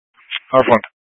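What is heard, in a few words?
Incident Tactical 1, Scanner audio recording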